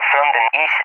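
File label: "from the east", English, Spain; DCS; Allied Flight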